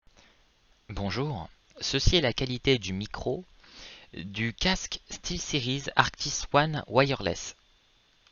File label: Arctis 1 Wireless